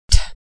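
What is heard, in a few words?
sound "t", American English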